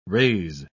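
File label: "rays", British English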